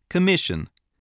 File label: "commission", voice